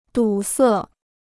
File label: "堵塞", Chinese